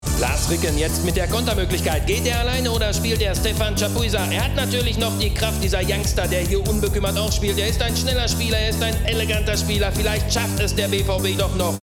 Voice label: Sportkommentar

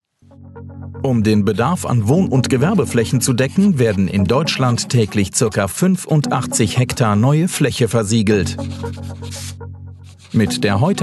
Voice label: Erkläervideo Sprecher; Award-R56-plus-Mittelrhein

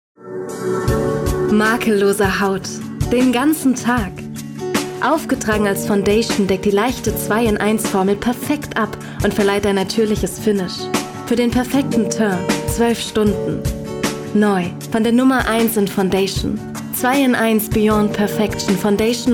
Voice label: Werbung Kosmetik Musik; LOFT VOICES

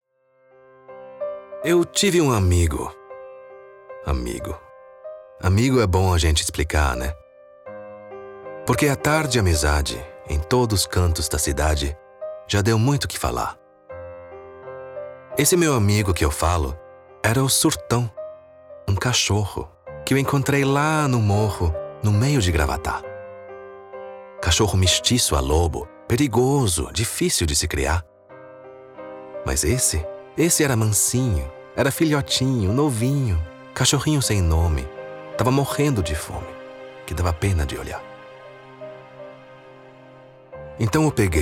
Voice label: portugiesisch monolog; LOFT VOICES

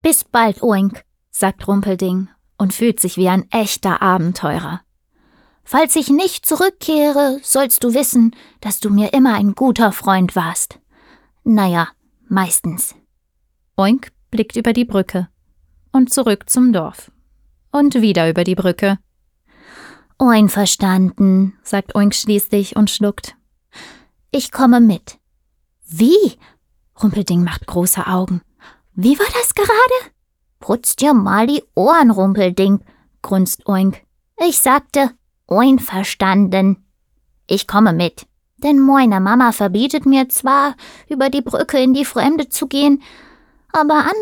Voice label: Kinderhoerbuch; Rumpelding

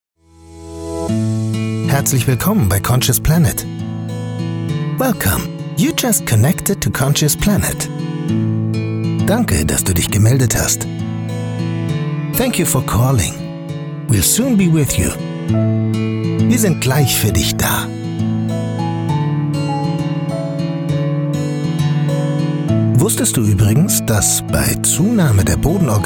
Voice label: Text vor Melden Deutsch Englisch; conscious planet

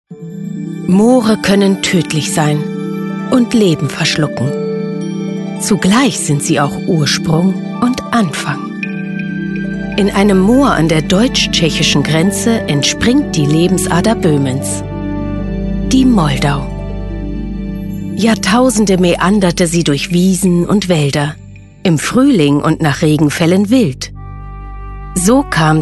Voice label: Klassische Dokumentation

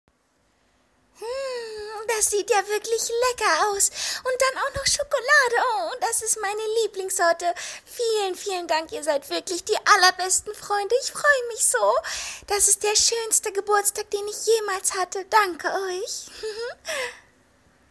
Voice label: hellere stimmlage animation

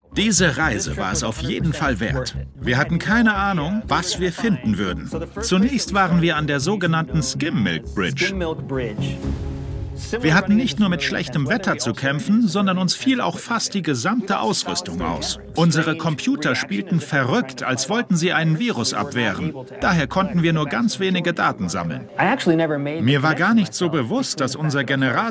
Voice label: Voice-Over, Doku, Off, German; Beyond Skinwalker Ranch; Geheimnissen auf der Spur

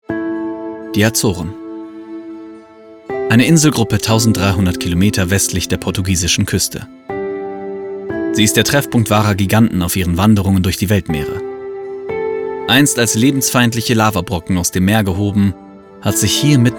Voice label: Sprachdemos Doku; LOFT VOICES

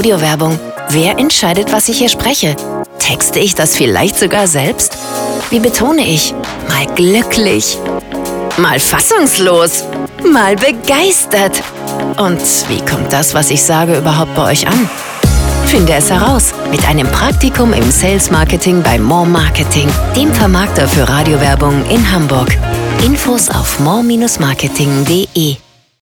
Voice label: Stimme, Station Voice, Commercial (Werbung), German; Deine Stimme von Radio Hamburg

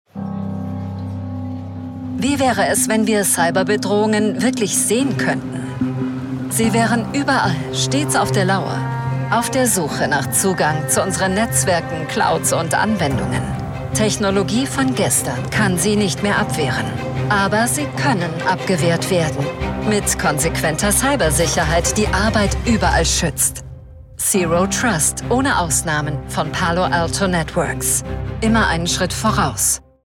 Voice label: WERBUNG Pre Roll Kampagne warm praesent; PALO ALTO NETWORKS; LOFT VOICES

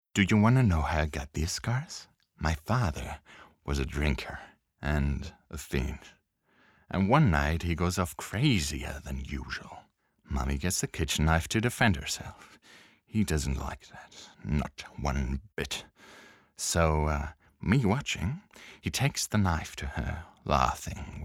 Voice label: Monolog EN; Joker

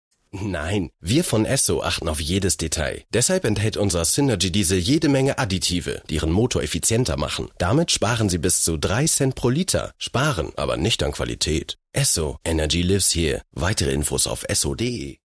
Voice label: Radio KURZ; Esso

